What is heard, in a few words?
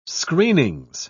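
"screenings", British English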